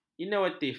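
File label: "inovatif", Malay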